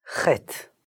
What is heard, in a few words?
"Chet", Hebrew; hebrew introduction